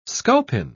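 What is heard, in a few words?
"sculpin", English, British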